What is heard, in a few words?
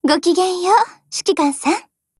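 HELLO Japanese, Voice; GF Alarm; 98K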